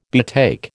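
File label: "betake", American English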